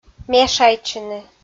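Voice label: "мешайтчыны" Komi, female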